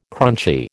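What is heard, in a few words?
"crunchy", American English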